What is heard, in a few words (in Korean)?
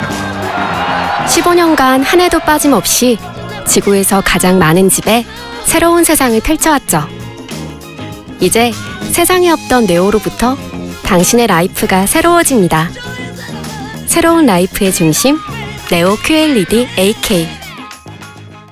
밝은 세련됨